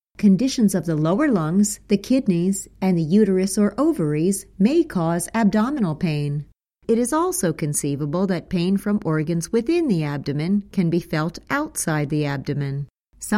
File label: Medical Narration US English dry voice